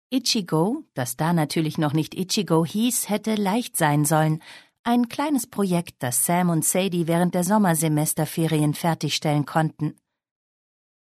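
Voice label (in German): Hoerprobe, Bayerische Blindenhörbücherei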